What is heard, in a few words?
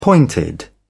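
"pointed", British English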